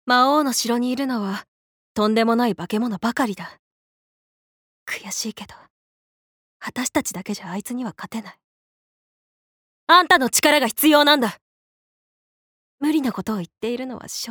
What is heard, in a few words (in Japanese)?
アニメ